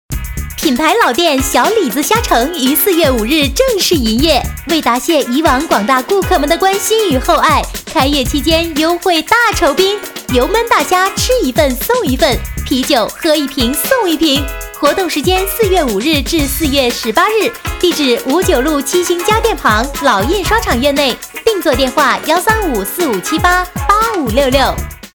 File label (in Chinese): 促销, 国语女声配音; 小李子虾城